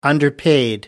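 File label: "underpaid", English, British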